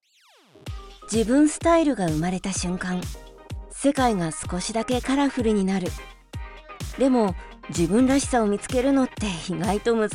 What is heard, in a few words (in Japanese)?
ポップ ファッションCM; VOICE SAMPLE